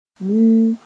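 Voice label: "wuu", Mooré; very big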